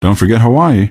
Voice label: answer, male; Garry's Mod; hl2